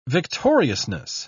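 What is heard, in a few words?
"victoriousness", British English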